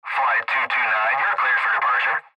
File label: Airtraffic Control Departure